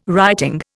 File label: "writing", Indian